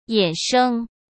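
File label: "衍生", Mandarin Chinese; chinese-flashcards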